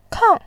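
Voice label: "kang4", Mandarin Chinese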